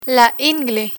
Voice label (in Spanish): "ingle", Spanish; medical vocabulary